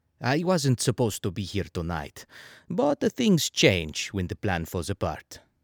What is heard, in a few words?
Italian Character